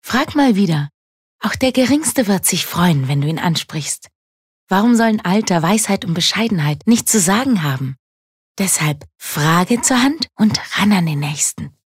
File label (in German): Werbung (Sanft)